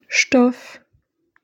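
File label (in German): "Stoff", German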